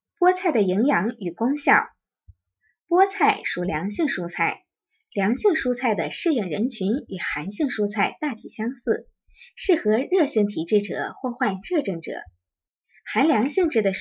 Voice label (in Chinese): 课件 菠菜的营养与功效